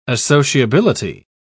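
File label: "associability", British English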